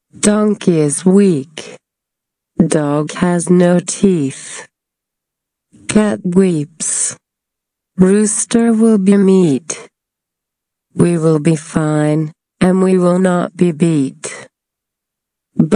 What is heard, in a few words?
Slow